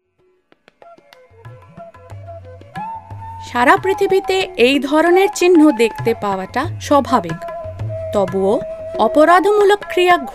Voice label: narration bengali

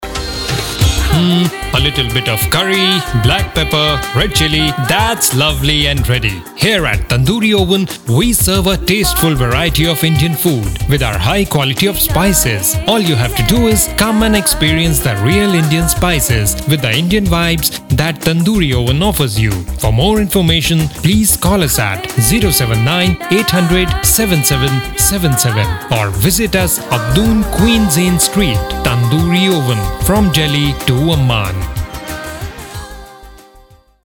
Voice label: promo english

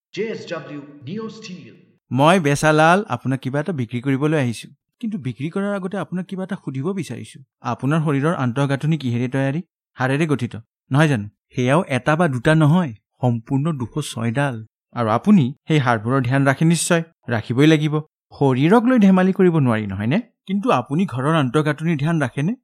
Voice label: Assamese Elearn; JSW NeoSteel; JSW ColouronPlus; Hero Glamour

